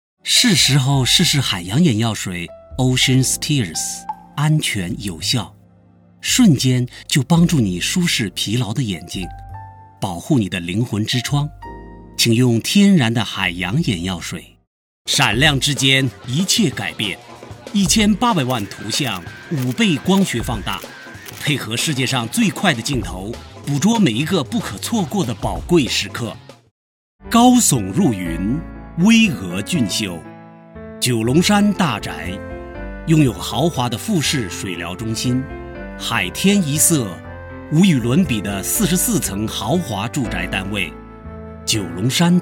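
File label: Mandarin Megamix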